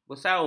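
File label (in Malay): "bersauh", Malay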